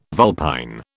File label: "vulpine", British